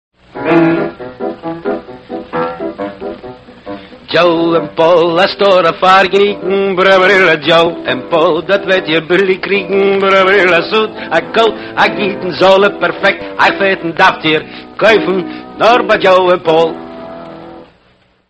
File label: yiddish radio commercial; joe and paul; the yiddish radio project